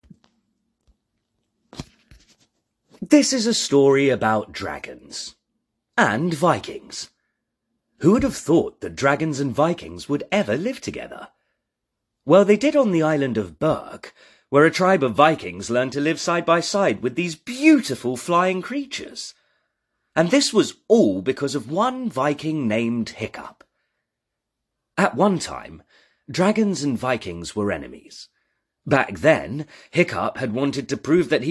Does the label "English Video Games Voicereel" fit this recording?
No